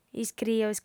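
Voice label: "iscrio", Sardinian, female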